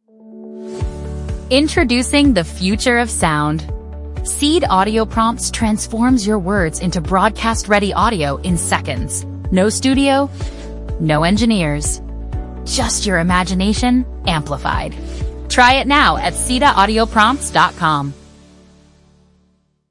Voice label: advertising brand, broadcast audio